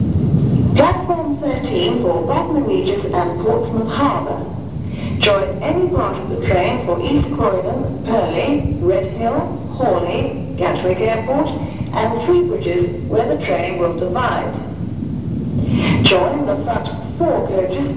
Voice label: clapham junction pre-recorded platform announcement; year 1983